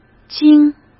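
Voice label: "惊", Mandarin Chinese; chinese-flashcards; hsk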